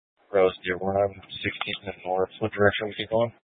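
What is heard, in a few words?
Police 1 Dispatch, Scanner audio recording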